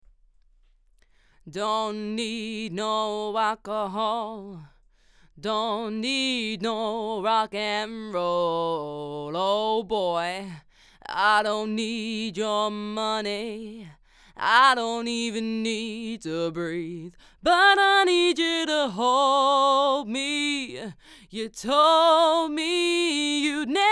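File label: Vox Shoot CAD M179 Cardioid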